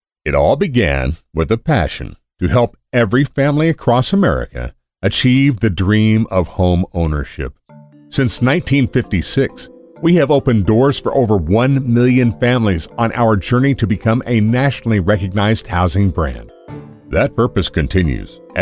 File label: CONVERSATIONAL